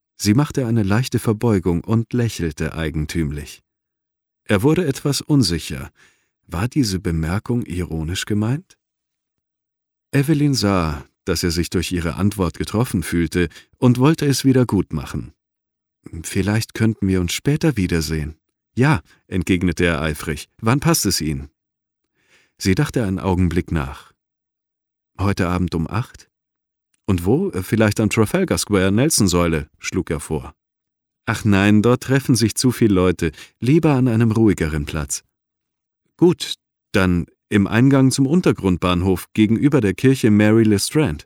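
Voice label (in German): Schauspiel, Freundlich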